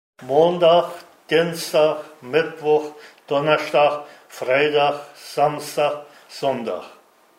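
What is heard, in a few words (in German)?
muhrcher wuchedaach, O-Ton Hunsrück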